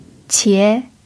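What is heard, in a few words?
"qie2", Chinese pinyin syllable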